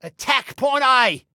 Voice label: ATTACK, Male

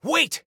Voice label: Team Wait, Soldier Male

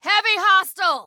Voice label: Warn Heavy, Female

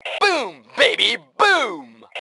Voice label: Taunt Obnoxious, Male; T2 Male 03

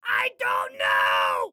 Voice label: respond don't know, excited guy

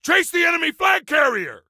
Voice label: Attack Chase, Soldier Male; Soldier Male 03

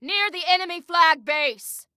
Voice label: Enemy Near Enemy Flag, Female

